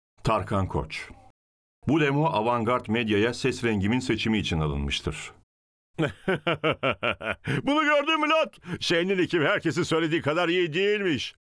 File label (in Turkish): animasyon